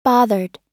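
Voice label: "bothered", US English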